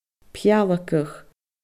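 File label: "peallagach", Scottish Gaelic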